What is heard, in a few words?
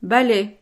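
"balai", French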